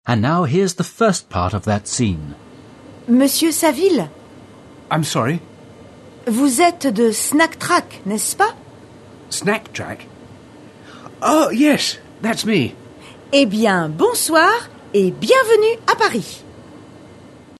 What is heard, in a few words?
French; allTalk